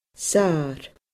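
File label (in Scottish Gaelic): "sar", Scottish Gaelic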